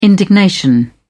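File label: "indignation", British English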